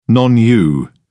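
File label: "non-u", British English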